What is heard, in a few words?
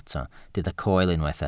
"coel", welsh